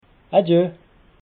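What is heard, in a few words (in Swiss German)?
"adje", Alsatian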